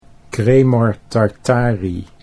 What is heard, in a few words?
cremor tartari, Dutch